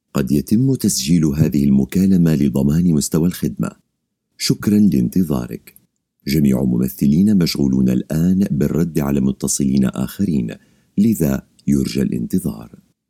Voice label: arabic audiobooks

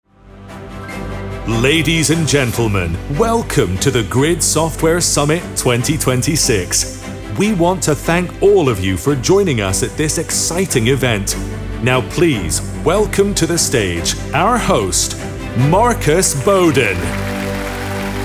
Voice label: english international announcements

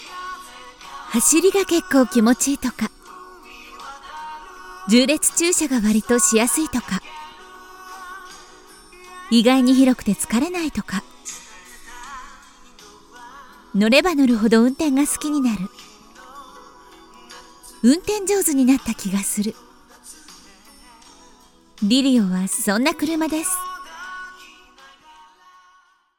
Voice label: Japanese automotive; professional Japanese announcer and voice actor

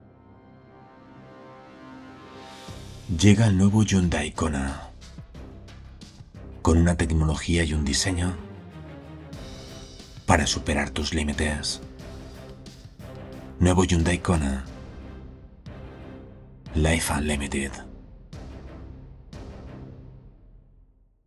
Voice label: spanish radio imaging

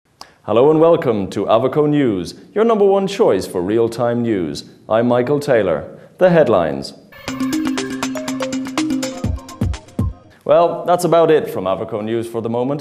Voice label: english irish tv shows

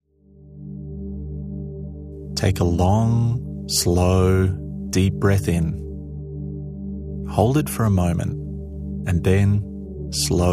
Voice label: english australian

